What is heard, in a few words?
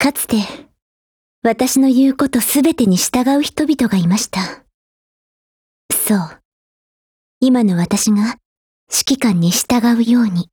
DIALOGUE JP